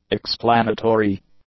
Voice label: "explanatory", english; adjectives

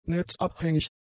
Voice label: "netzabhängig", German; adjectives

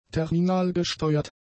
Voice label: "terminalgesteuert", German; adjectives

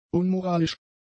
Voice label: "unmoralisch", German; adjectives

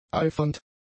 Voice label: "eifernd", german; adjectives

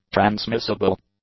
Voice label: "transmissible", English; adjectives

